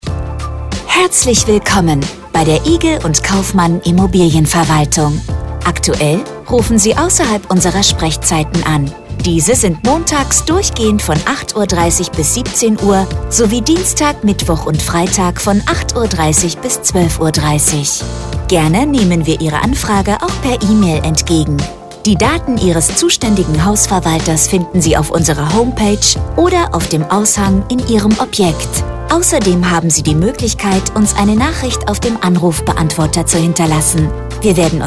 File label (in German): Ansage; Igel Kaufmann; telefonansage verwaltung hausverwaltung hausmeister